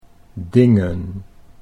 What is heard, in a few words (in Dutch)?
"dingen", Dutch